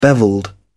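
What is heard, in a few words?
"bevelled", British English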